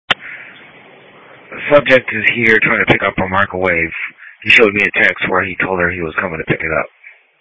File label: Police Patrol 1 (Dispatch)